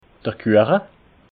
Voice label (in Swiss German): "kueche", alsace